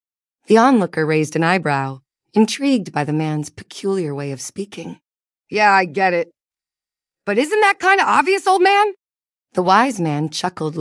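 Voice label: There was a Monkey, female, US, story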